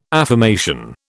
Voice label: "affirmation", British

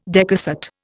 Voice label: "decussate", Indian

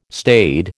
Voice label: "staid", American

